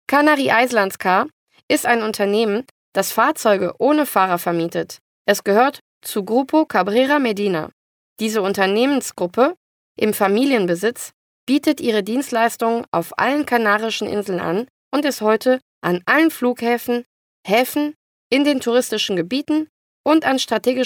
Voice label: locutora alemana german voiceover